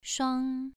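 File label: "shuang" Mandarin Chinese, second tone, pronunciation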